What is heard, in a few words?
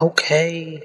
"okay", expletives